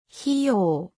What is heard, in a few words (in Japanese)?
"ひょ", 日本語; 基礎日語五十音聽力